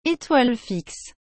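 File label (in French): "etoile fixe", French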